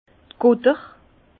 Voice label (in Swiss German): "gutter", Bas Rhin; vin; bonbonne